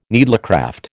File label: "needlecraft", American